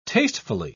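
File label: "tastefully", British English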